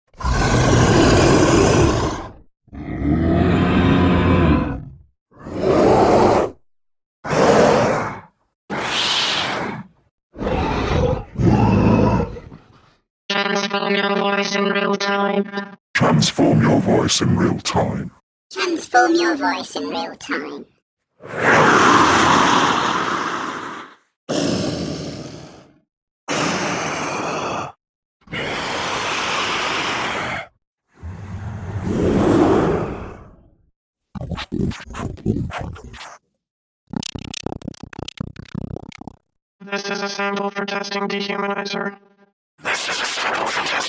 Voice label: dehumaniser sound examples